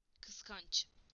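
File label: "jealous", Turkish; PictureDictionary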